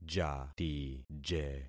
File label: "jatije", concat syllables; Affixing experiment